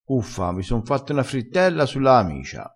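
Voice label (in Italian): "frittella", vocabolario dialettale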